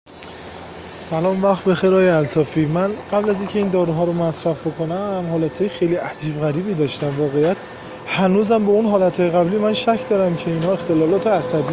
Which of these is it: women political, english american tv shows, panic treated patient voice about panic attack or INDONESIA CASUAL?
panic treated patient voice about panic attack